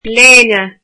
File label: "shirt", Irish